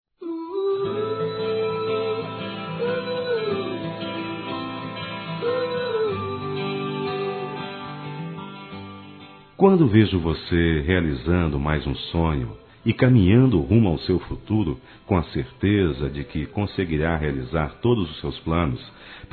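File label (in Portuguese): FORMATURA ROMANTICA, Telemensagens, Românticas masculino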